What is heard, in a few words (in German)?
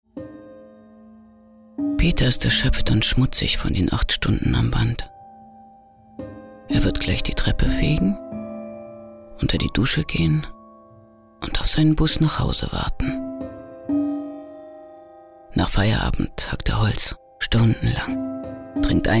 radio feature